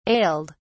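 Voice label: "ailed", British English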